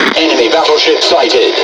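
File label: Pirate Speech Detection Enemy Battleship, English pirate; World Of Warships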